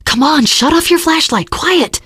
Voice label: kill that light, teengirl, voice; source engine; left 4 dead 2; survivor

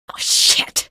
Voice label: alert head, female; episodic; episode 1; Source engine

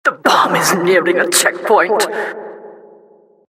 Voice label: announcer cart attacker warning

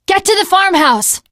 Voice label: teengirl, voice; Left 4 Dead 2; Source Engine; video games

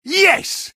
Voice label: sniper taunt rps win

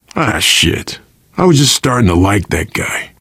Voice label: grief manager, survivor voice; source engine; left 4 dead 2

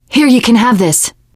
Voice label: alert give item, teen girl, survivor voice

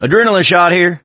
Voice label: adrenaline, survivor voice; source engine; left 4 dead 2

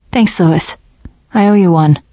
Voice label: thanks, teen girl; survivor voice

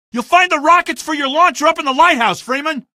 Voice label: lite rockets, male; Source Engine; video game; coast; barn

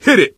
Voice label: misc directional, coach, survivor voice

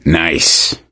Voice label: nice job, gambler, survivor voice

